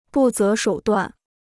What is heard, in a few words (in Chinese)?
"不择手段", Chinese